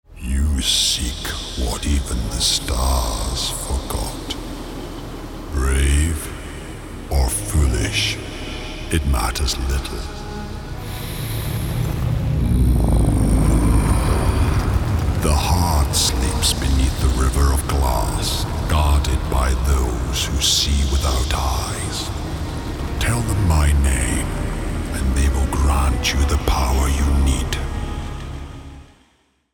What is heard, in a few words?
gaming, Scottish voice; the world serpent